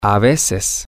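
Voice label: sometimes, Spanish, male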